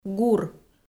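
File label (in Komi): "гур", Komi, female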